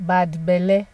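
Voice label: "badbelle", Nigerian Pidgin, female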